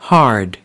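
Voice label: "hard", English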